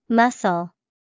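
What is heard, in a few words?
"muscle", English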